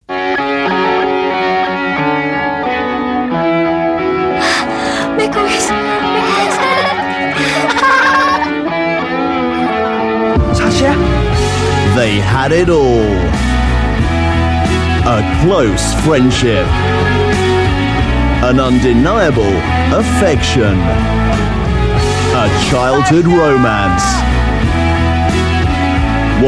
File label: UK English; Innocent Love